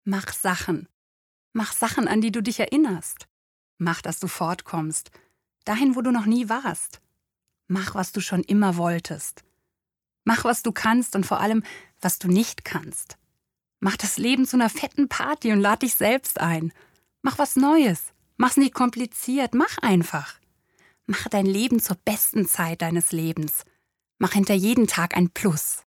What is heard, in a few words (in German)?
Casting Monolog; LOFT VOICES